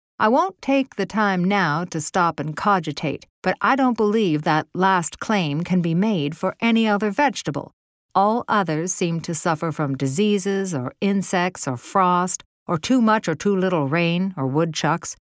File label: Non Fic Memoir 1st Person Gardening